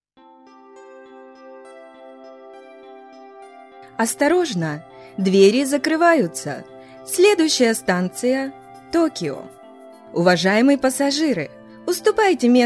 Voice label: announce